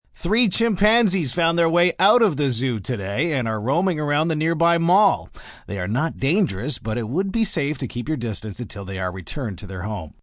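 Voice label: News 3 Chimps M, Broadcast, news, male news item on escaped chimpanzees.; human voice speaking; VoiceBox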